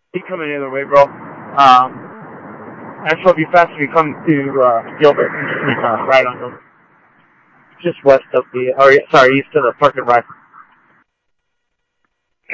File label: Mesa Simulcast, MESA PD, scanner audio